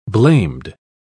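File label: "blamed", British English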